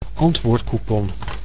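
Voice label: "antwoordcoupon", Dutch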